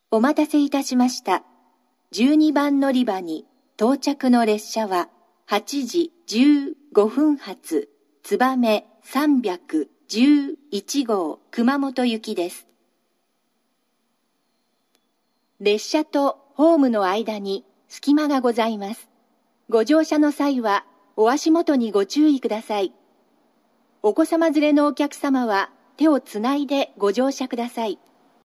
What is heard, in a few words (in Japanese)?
新玉名駅 つばめ311号 熊本行き（無人化後）, 九州新幹線 SIRIUS型放送; 12番のりば接近放送